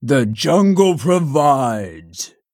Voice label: Male Tortollan Play